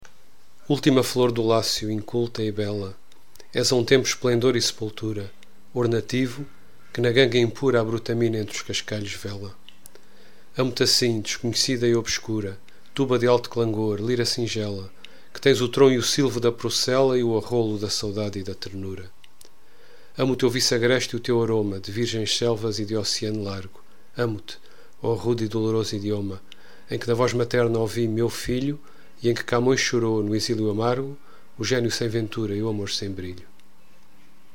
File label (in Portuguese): lingua Portuguesa voz